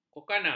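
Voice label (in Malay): "kokaina", Malay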